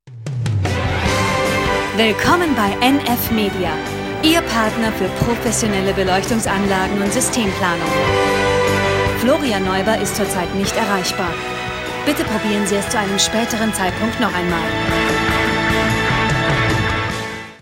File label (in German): abwesenheitsansage